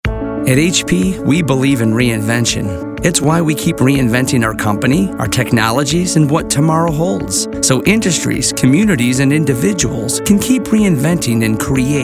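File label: narration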